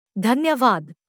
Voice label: Hindi thank you; Greetings